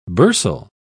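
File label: "bursal", British English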